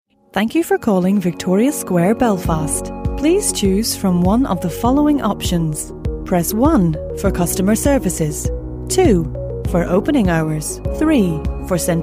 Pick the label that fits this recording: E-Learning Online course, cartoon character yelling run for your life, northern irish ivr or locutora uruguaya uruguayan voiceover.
northern irish ivr